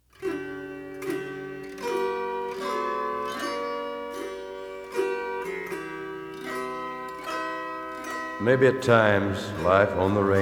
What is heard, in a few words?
Narration